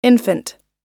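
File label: "infant", American English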